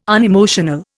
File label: "unemotional", Indian